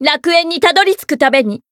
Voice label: Voice, jp

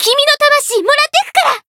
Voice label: Voice variable chant jp; ilulu

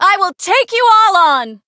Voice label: variable chant

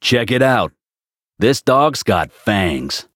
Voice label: variable chant; hardy

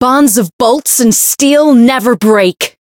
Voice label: variable chant; hiina

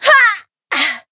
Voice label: Voice damaged; anaberu

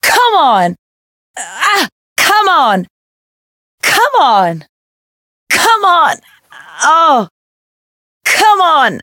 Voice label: Voice damaged; hoozuki

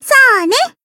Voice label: attack, jp

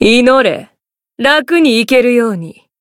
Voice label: encount, jp

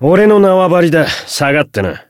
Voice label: Voice chant, jp; hardy

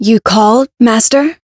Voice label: variable chant

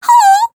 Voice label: Voice damaged; ilulu

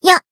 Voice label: Voice attack jp

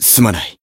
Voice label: healed, jp

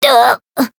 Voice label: Voice damaged

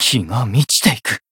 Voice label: healed jp

